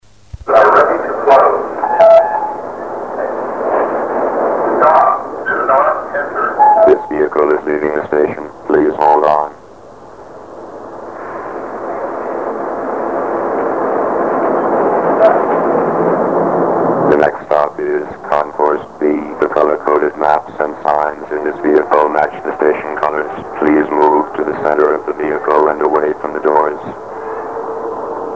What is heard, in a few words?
Atlanta shuttle robot voice